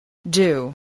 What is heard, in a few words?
"due", English